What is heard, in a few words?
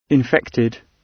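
"infected", British English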